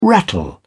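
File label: "rattle", British English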